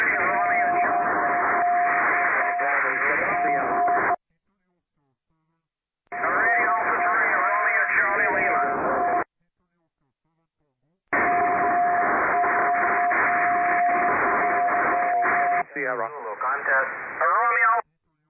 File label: USB 28MHz; CQWW SSB